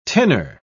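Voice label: "tinner", British English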